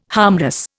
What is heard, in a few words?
"harmless", Indian